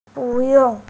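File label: "bu4yong4", Mandarin Chinese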